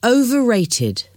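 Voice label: "overrated", English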